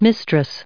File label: "mistress", us